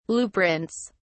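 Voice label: "blueprints", British English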